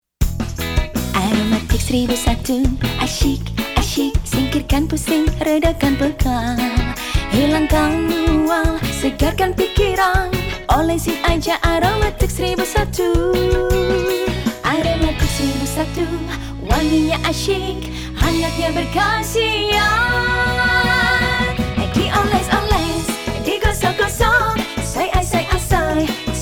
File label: JINGLE WITH VO